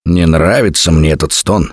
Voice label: heard witch, left4dead2 russian, Survivor Voice; Gambler

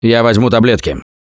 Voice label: Take Pills, left4dead2 russian, Survivor Voice; Gambler

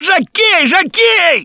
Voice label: grabbed by jockey, left4dead2 russian, Survivor Voice; Gambler

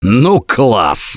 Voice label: Nice Job, left4dead2 russian, Survivor Voice; Gambler

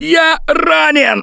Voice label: Incapacitated Initial, left4dead2 russian, Survivor Voice; Gambler

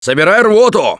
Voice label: boomer jar, left4dead2 russian, Survivor Voice; Gambler

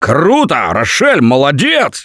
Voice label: nice shot, left4dead2 russian, Survivor Voice; producer; Gambler

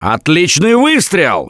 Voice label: Nice Shot, left4dead2 russian, Survivor Voice; Gambler

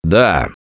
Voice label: Yes, left4dead2 russian, Survivor Voice; Gambler

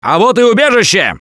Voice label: Safe Spot Ahead, left4dead2 russian, Survivor Voice; Gambler

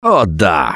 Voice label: take melee, left4dead2 russian, Survivor Voice

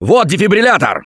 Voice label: defibrillator, left4dead2 russian, Survivor Voice; Gambler

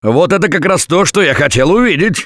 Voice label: Nice Job, left4dead2 russian, Survivor Voice; Gambler

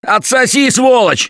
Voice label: Taunt, left4dead2 russian, Survivor Voice

